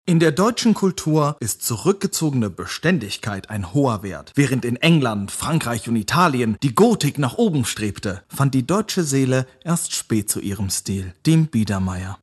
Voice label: narration

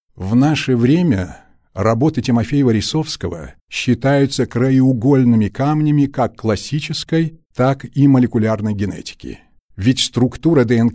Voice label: narration

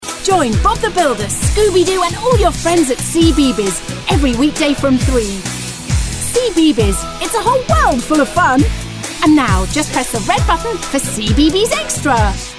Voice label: promo, voice

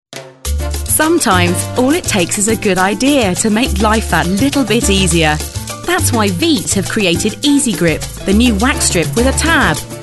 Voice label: commercial

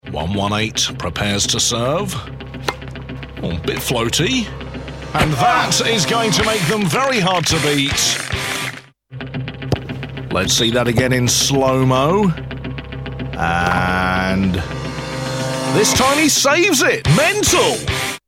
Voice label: commentary

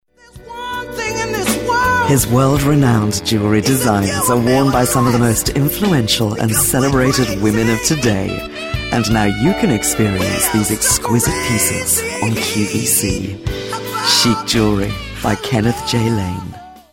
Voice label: soft sell

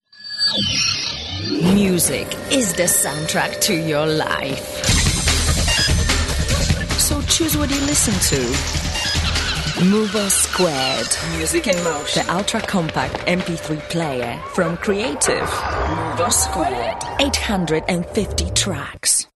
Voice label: eastern european accent

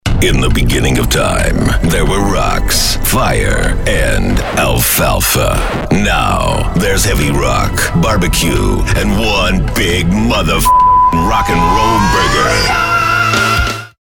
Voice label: hard sell

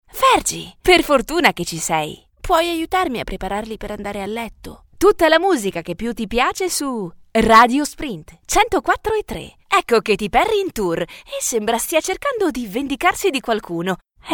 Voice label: commercial

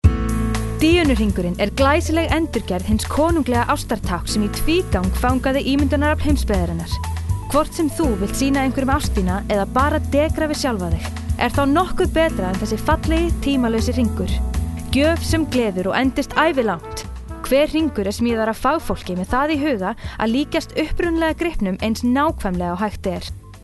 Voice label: Hard Sell

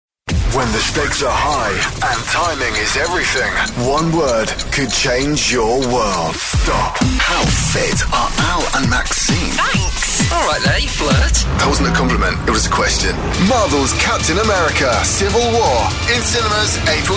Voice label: Imaging

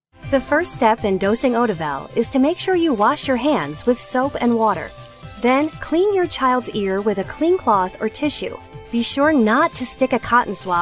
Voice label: explainer

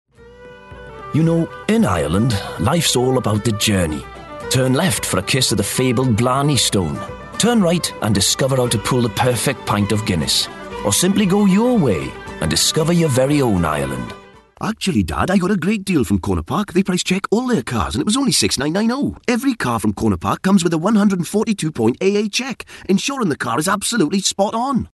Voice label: Welsh

